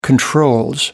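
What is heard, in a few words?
"controls", British English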